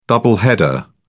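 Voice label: "double header", British English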